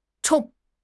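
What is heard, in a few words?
"zhong4", Chinese; HSK4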